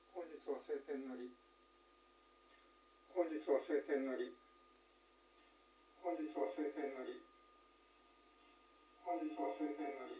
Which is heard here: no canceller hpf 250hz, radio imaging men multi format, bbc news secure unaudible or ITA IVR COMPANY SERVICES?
no canceller hpf 250hz